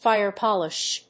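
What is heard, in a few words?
"fire polish", English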